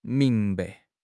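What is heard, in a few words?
"ming2 bai5", Mandarin Chinese; HSK3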